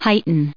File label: "height", en us